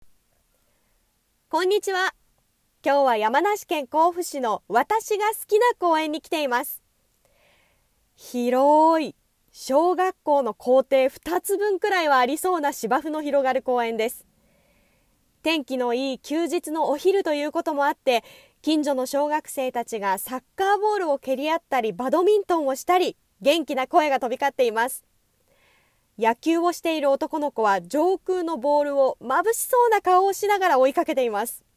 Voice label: リポート

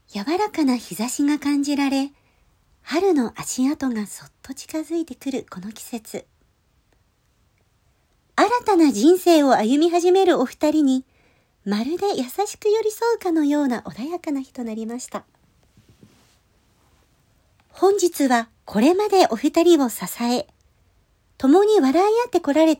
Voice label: ウエディング サンプルボイス; ブライダルMC; 山梨県内ブライダルMC

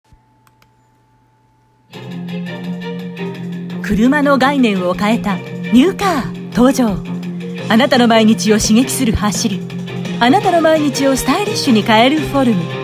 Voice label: 新車CM

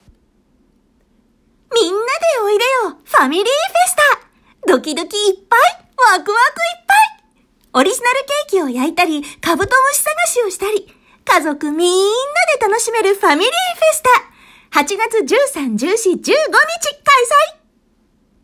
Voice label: ファミリー CM

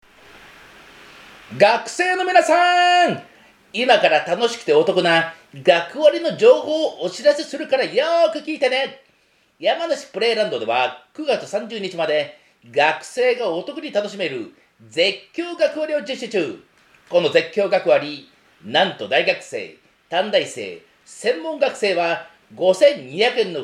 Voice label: ハイテンションPR

